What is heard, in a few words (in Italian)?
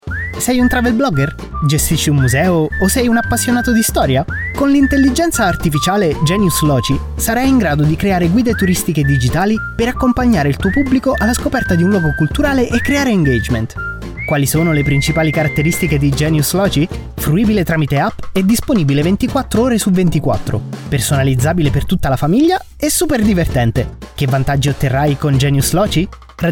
speaker uomo